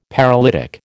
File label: "paralytic", American